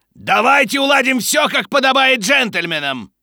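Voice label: Spy melee dare, russian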